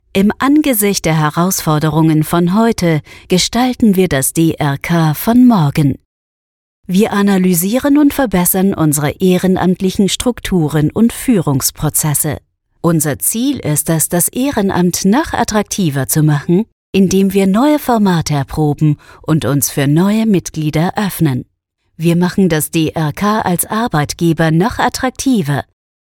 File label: German; DRK